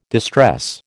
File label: "distress", American